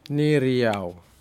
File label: fish goldspotted Spinefoot, Aneityum